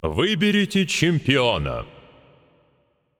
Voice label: Announcer Russian Lobby Champion Select

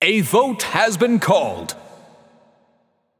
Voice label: Announcer Town Crier Voting Called

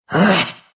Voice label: pain, ru; Dota 2

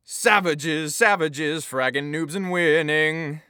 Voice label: Announcer Spree 9Kills; Paladins

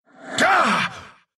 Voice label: pain, ru

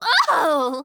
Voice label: Announcer Lobby Card Oh; Evie

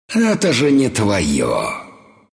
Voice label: deny, ru; dota2

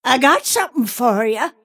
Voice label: attack pain; Snapfire

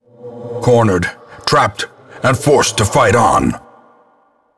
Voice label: Narration; Darkest Dungeon; retreat fail

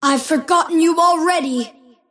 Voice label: last hit pain; Invoker

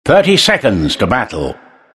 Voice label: announcer count battle 30; Trine; Dota 2

